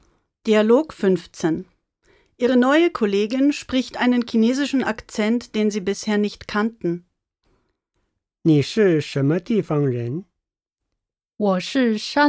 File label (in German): Dialog